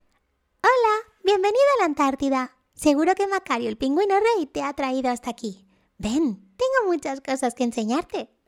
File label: CHARACTER, SPANISH FEMALE